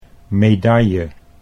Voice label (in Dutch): "medaille", Dutch